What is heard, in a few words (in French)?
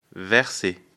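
"verser", French; afrikalan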